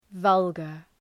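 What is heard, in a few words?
"vulgar", English word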